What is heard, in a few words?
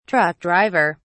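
"truck driver", British English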